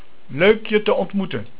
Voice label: Ontmoeten, Dutch